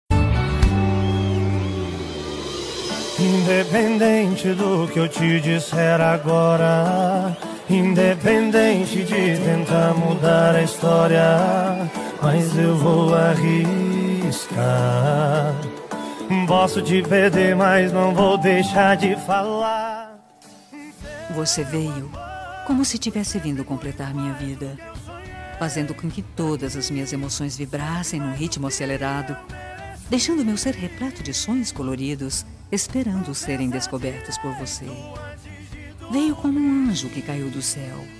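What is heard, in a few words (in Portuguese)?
ROMANTICA SUAVE female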